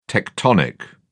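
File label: "tectonic", British English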